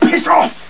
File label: male taunt; starsiege